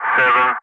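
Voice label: Speech, English, Digits, 3 continue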